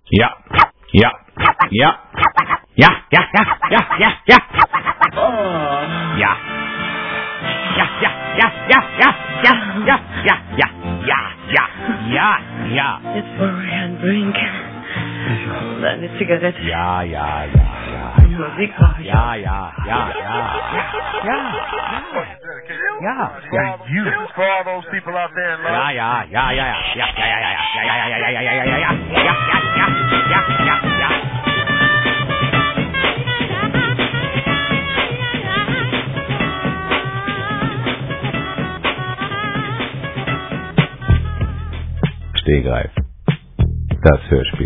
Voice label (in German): trailer